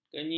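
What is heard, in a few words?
"kenyi", Malay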